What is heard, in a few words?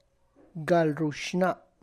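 gets married, Tlacochahuaya Zapotec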